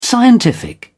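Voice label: "scientific", British English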